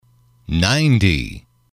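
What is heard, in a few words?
"ninety", English, male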